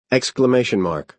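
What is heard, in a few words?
"exclamation mark", American English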